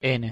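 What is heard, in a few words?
"n", Spanish pronunciation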